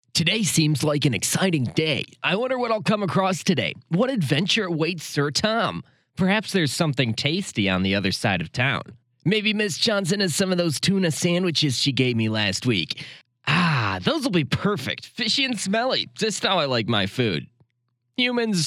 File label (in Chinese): 美式英语男声